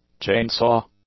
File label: "chainsaw", English; nouns